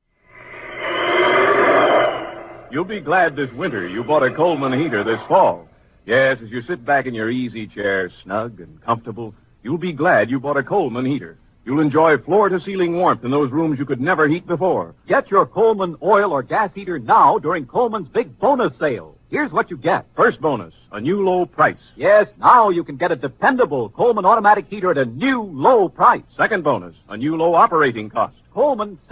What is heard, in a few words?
Vintage Commercials; Coleman